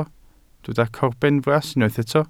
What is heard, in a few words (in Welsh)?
"corbenfras" Welsh